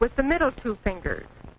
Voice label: with the middle fingers, Quirky Female Typing Instructor; VOCALS and SPOKEN WORD